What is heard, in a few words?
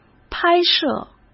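"拍摄", Mandarin Chinese; chinese-flashcards; hsk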